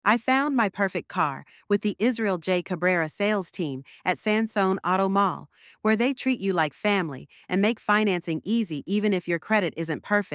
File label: voiceover; Use a voiceover video telling stories of customer satisfaction, trust, and personalized se; Kaylin AI